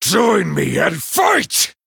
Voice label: Male Night Elf TRIGGER COORDINATED STRIKE